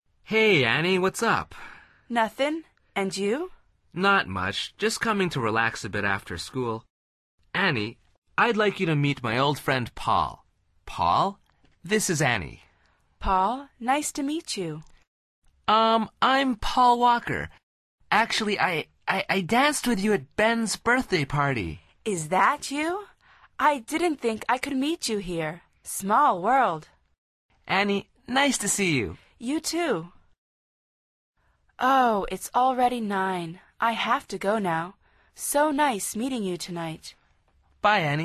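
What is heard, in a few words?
dialog, Conversación en Ingles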